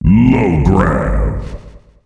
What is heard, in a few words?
Low Grav, announcer male; Unreal Tournament; ut2004